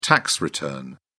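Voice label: "tax return", British English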